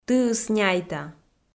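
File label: "тыыс няйта", Komi, female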